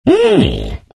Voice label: happy; Disruptor